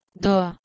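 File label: "dhouh2", Proto-Indo-European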